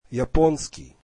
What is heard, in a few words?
Japanese, russian; японский [yaponskiy]